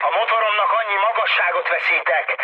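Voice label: Hungarian damaged engine mood med, speech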